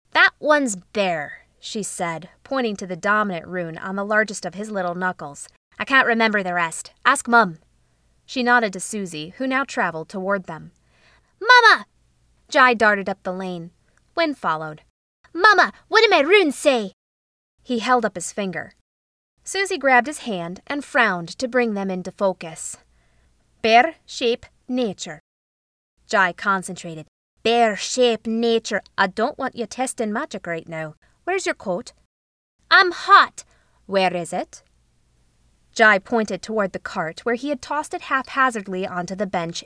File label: Mother and Child - Accents, narration